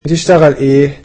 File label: What do you do for a living, egyptian